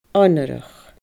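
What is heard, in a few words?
"onarach", Scottish Gaelic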